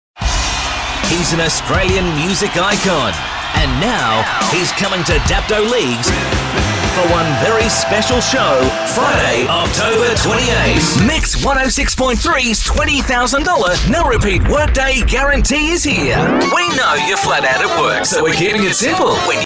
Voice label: imaging radio